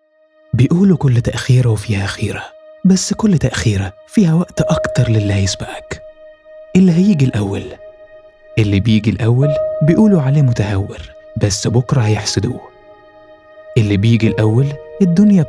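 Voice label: Arabic, general, Egyptian accent; GoLocalise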